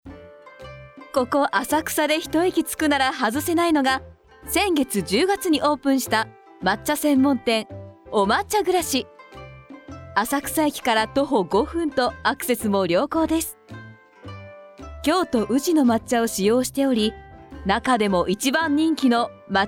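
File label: narration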